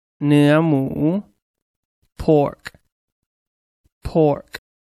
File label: "pork", English; noun